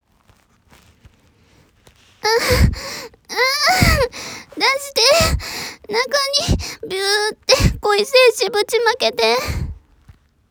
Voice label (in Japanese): セリフ, ASMR